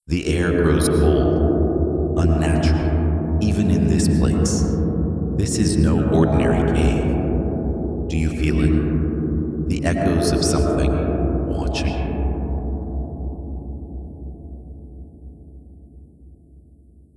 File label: narration ice; kz realmshift